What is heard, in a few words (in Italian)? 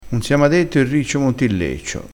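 "leccio", vocabolario dialettale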